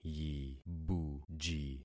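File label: "yibuji", concatenated syllables; Affixing experiment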